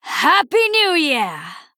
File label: Female Night Elf Happy New Year Lunar